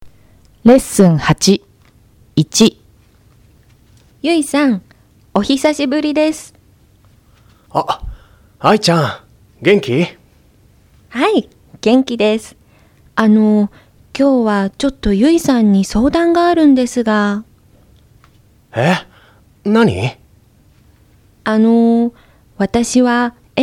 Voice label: Conversation